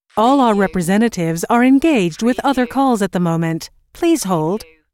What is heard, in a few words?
US female phone prompt; All our representatives are engaged with other calls at the moment - Please h